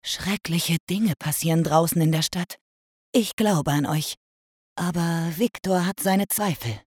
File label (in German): Sprecherin furcht; furcht 2